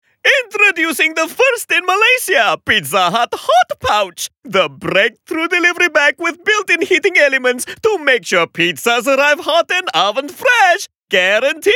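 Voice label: English announcer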